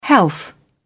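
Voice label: "health", British English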